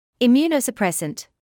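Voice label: "immunosuppressant", British English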